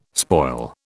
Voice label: "spoil", British

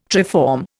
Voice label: "triform", Indian

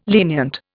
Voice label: "lenient", Indian

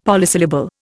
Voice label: "polysyllable", Indian; Dictionary

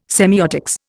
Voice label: "semiotics", Indian

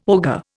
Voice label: "ogre", Indian

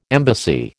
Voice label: "embassy", American

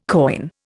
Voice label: "koine", Indian

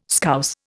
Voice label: "Scouse", Indian

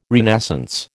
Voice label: "renascence", American

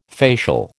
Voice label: "facial", American

